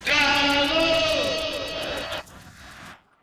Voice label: radio; treze; pop cariri fm

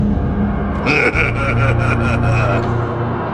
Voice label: snark; foxy

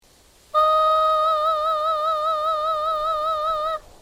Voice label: sweet voice

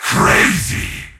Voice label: crazy commentator

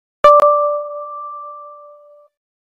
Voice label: annoying talking orange

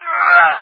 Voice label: stormtrooper grunt; lego star wars

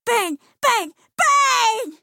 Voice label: burn burn buuuurn; hat kid

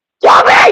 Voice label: loud; dobby

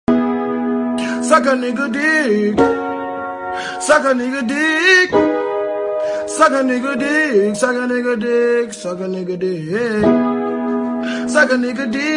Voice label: cutie voice

